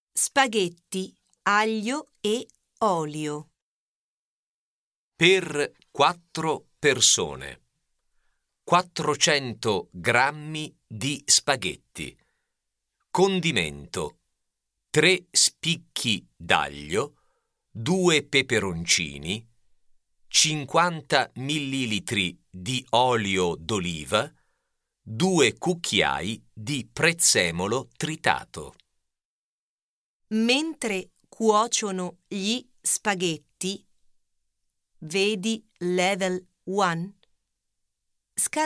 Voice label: Slow, Cuisine, Italian